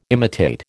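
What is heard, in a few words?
"imitate", American English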